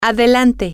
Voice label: go ahead, spanish, F

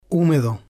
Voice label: "humedo", spanish

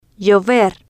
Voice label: "llover", Spanish

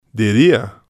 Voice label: "diria", spanish

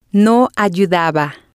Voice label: "no ayudaba", spanish

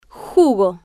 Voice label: "jugo", Spanish

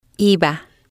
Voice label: "iba", spanish

